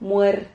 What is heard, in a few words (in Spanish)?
"muer", Silabas